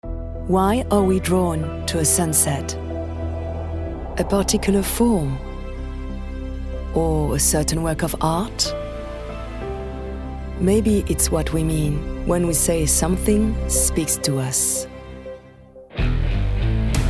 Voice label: female French English with French accent corporate commercial; peugeot uk; voices